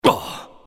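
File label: pain, Chinese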